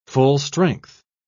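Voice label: "full-strength", British English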